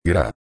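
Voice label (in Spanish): "gra", Spanish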